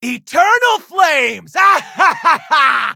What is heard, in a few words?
levelup, en